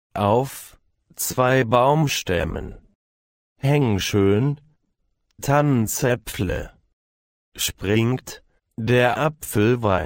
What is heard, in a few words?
German Poem